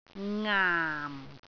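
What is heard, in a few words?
"ngaam4", Cantonese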